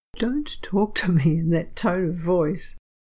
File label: tone; vocal aspects of speech delivery